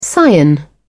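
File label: "cyan", British English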